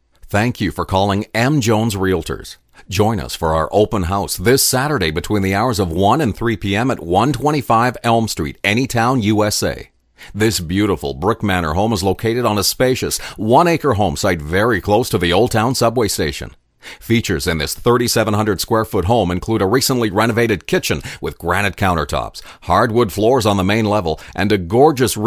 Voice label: Warm and Deep